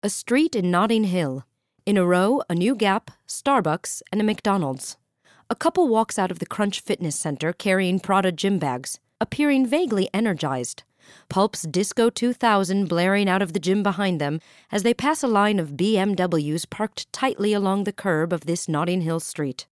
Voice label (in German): us englisch